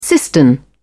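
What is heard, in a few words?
"cistern", British English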